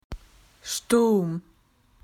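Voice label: "storm", Australian English; PictureDictionary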